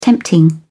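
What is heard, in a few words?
"tempting", British English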